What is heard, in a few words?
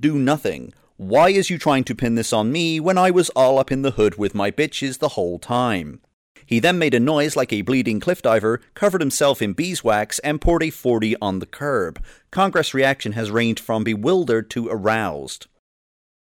news report